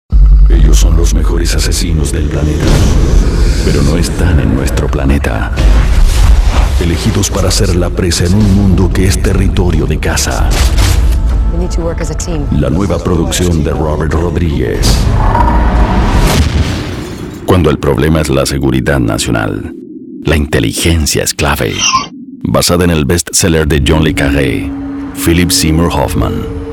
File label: spanish latin american movie trailers